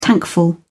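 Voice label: "tankful", British English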